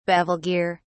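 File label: "bevel gear", British English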